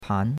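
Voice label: "pan2" Mandarin Chinese syllable, second tone; Cours-de-Chinois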